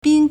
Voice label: "bin1", Chinese

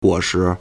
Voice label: "guo3shi2", Chinese

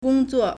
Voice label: "gong1 zuo4", Chinese

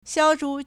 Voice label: "xiao1chu2", Chinese

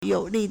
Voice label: "you3 li4", Chinese

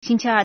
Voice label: "xing1qi1er4", Chinese

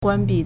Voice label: "guan1bi4", Chinese

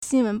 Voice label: "xin1wen2", Chinese

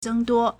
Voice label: "zeng1duo1", Chinese

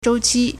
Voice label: "zhou1 qi1", Chinese

